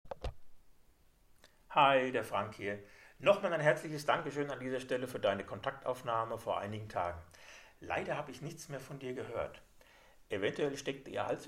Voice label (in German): Sprachnachricht